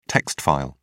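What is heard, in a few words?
"text file", English, British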